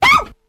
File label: ow female